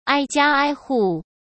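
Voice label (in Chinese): "挨家挨户", Mandarin Chinese; chinese-flashcards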